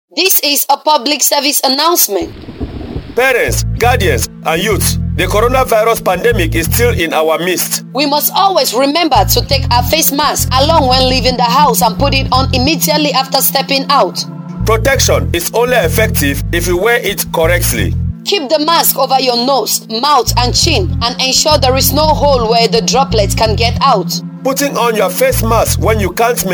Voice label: Spot in English on Facemasks, radio spots; CCMN national covid19